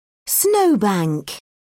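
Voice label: "snow bank", British English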